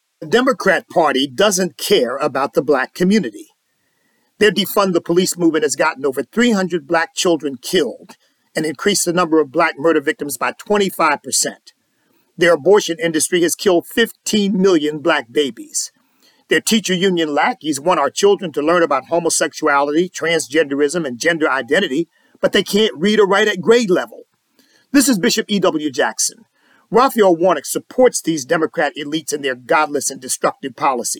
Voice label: radio spot